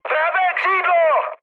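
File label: right wing, Czech, mood high; speech; War Thunder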